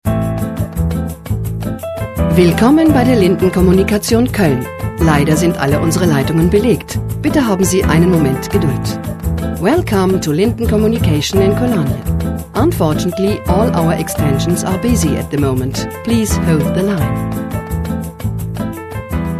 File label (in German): ansage bei besetzt